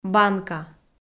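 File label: "банка", Komi, female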